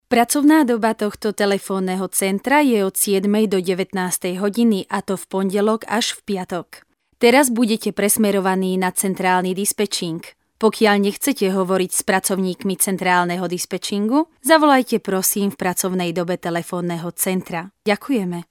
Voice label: Female Slovak ivr